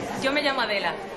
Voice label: Voice Acting es F